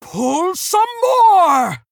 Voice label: Female Tauren Attack